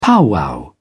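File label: "powwow", English, British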